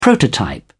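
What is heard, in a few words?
"prototype", British English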